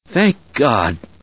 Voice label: cheer; voice commands; scientist

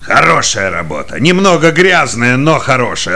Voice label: Cheer; Kingpin Fargus

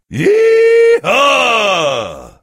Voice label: cheer

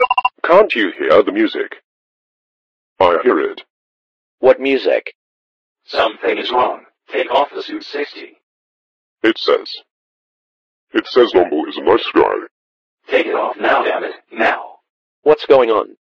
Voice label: radio; sven; resistance; dialogs

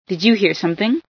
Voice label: hear something, female

